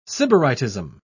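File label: "sybaritism", British English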